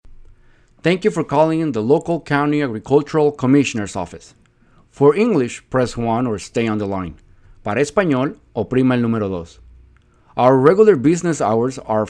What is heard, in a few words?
voicemail